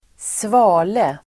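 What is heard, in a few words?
"svale", Swedish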